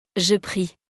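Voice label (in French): "je prie", French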